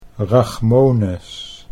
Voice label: "rachmones", Dutch